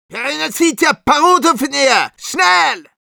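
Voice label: Medic move up, Russian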